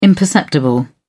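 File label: "imperceptible", British English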